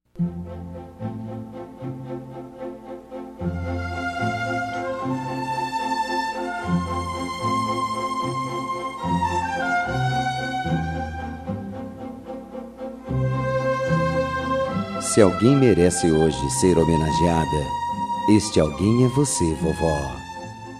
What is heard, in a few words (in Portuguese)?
Dia das Maes pra Avo, M